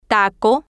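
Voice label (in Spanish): "taco", Spanish, female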